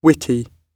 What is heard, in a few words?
witty, gb